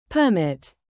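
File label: "live", verb, British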